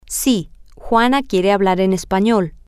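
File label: yes Juana wants to speak in Spanish, Spanish, male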